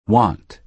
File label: "want", USA